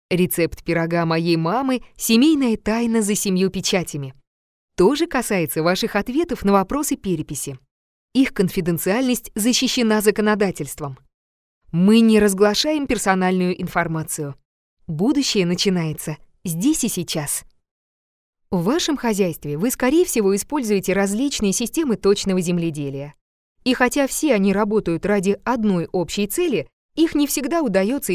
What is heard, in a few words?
demo presentation